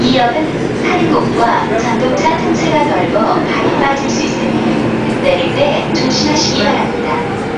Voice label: Subway watch your step, Korean